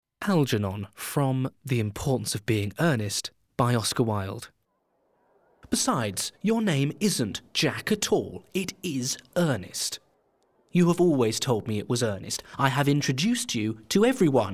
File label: Comedy; An Importance of Being Earnest